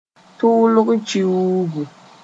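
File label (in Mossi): "tʋʋlg kiuugu", Mooré; March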